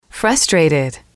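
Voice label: "frustrated", English, female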